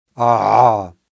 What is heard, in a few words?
voiced epiglottal fricative, phoneme